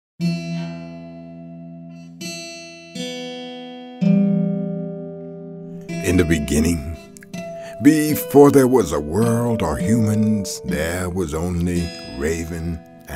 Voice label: Narrator; Coyote Dreams

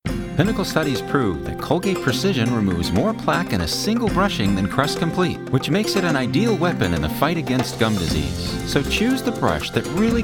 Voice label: Commercial Up

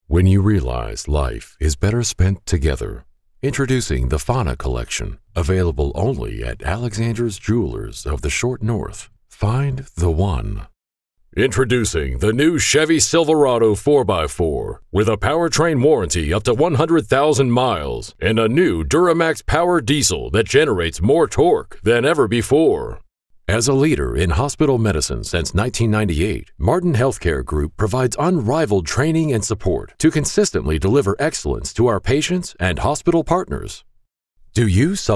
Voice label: Commercial No Music

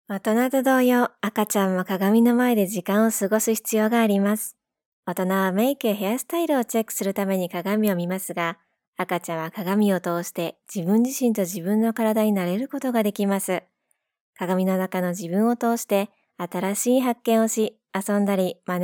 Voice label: Baby Japanese Sample

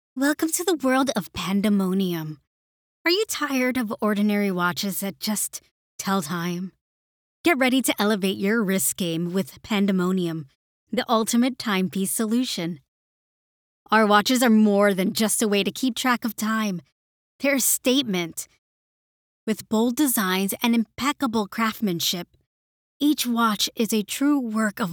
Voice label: Online ad Distinctive and Charismatic

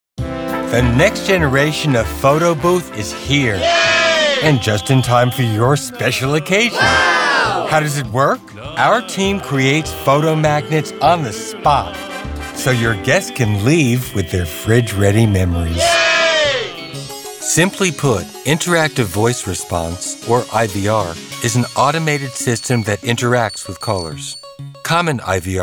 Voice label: Explainer

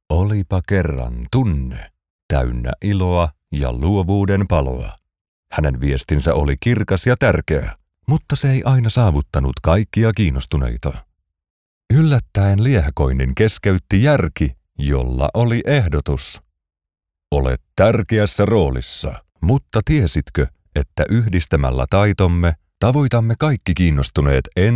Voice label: Audiobook Storyteller

